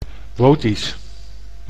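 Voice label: "Wotisch", Dutch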